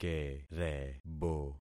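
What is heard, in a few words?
"kerebo", concatenated syllables; Affixing experiment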